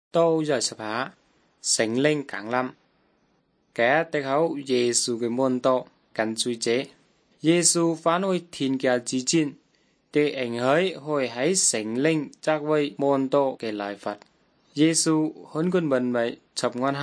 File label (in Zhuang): good news zhuang nanning fushu